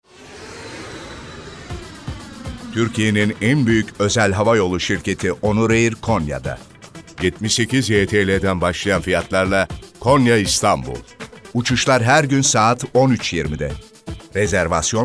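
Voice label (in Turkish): tanitim, seslendirme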